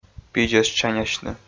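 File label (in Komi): "пидзӧсчанясьны", Komi, male; audio dict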